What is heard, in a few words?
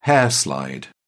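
"hair slide", British English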